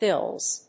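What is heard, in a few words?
"fills", English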